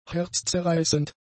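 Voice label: "herzzerreißend", German; adjectives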